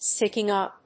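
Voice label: "sticking up", English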